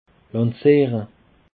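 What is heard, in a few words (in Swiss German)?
"lancere", Alsatian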